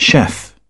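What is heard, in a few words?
"Chef", British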